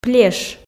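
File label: "плеш", Komi, female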